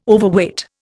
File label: "Overweight", Indian